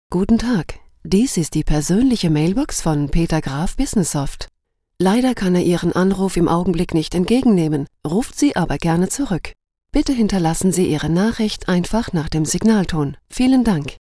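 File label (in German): Kommentar, Neutral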